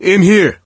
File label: misc directional, coach, survivor voice